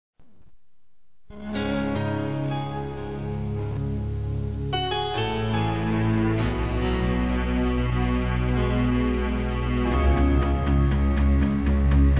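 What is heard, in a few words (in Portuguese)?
ANIVERSARIO, feminino; Telemensagens; Telemensagens 2017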